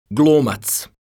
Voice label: "glumac", croatian

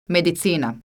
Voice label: "medicina", Croatian

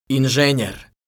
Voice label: "inzenjer", croatian

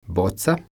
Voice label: "boca", croatian

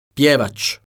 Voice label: "pjevac", croatian

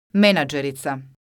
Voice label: "menadzerica", Croatian

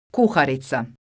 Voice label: "kuharica", croatian

